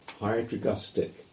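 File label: "Higher Tregustick", English; place names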